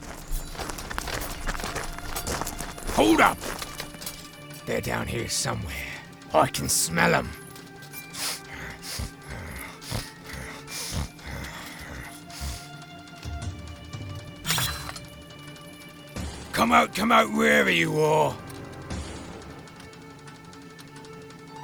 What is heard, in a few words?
orc, voiceover